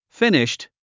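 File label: "finished", English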